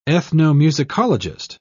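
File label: "ethnomusicologist", British English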